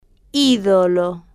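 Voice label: "idolo", Spanish, female, slow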